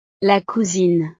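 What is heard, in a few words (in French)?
"la cousine", French; la prima; familia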